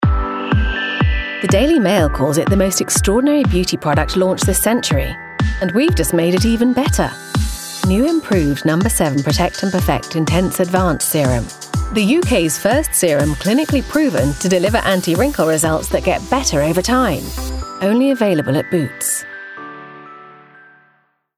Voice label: Commercial; Boots Protect and Perfect